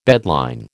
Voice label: "bedline", American